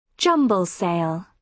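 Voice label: "jumble sale", British English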